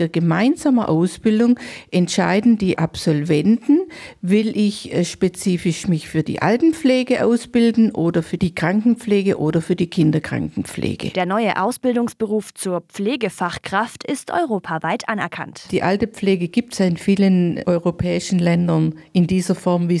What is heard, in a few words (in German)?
Stiftung Liebenau Infomercial; st-barbara-baienfurt; pflege